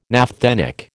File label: "naphthenic", American